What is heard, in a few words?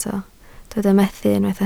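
"methu" Welsh, spoken word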